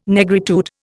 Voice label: "Negritude", Indian